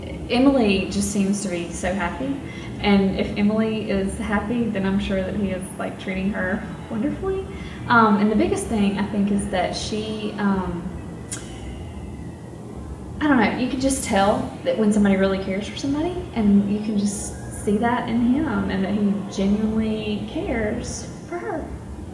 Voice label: interview problem maid statement perked up